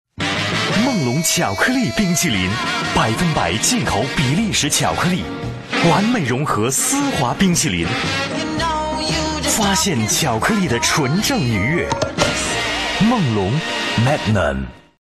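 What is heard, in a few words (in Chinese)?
广告_食品饮料_活力; 梦龙巧克力冰淇淋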